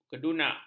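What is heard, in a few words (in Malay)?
"kedunak", Malay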